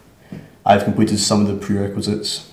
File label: interviewee male